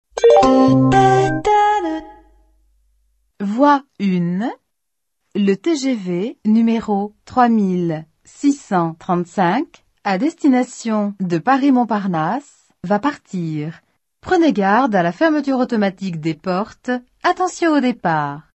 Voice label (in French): appel en gare; sncf